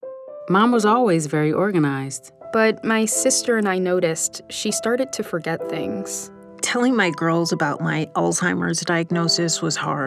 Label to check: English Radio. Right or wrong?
Right